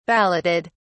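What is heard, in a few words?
"balloted", British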